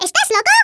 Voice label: stupid, Hispanic; Worms Armageddon

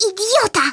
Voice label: OI NUTTER, Speech, Polish; Worms Armageddon

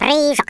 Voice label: jump, Russian

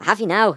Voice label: "revenge", Irish; Worms Armageddon

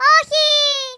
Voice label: nooo, Speech, Greek; Worms Armageddon

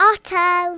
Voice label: byebye, Speech Kidz; Worms Armageddon

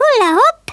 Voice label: "first blood", Hungarian; Worms Armageddon

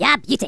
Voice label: "PERFECT", Angry Scots; Worms Armageddon

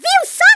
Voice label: "Revenge", Speech Portuguese; Worms Armageddon

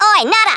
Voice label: "Oi nutter", Australian; Worms Armageddon

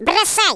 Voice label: drop, Speech, Russian

